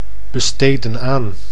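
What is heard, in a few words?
"besteden aan", Dutch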